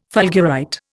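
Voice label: "fulgurite", Indian English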